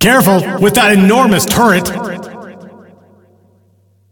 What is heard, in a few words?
Announcer; Awesomenauts; Ringmaster